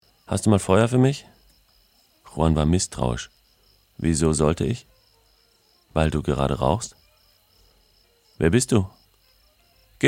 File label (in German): hoerbuch; das magische pentaeder